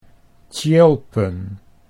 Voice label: "tjilpen", Dutch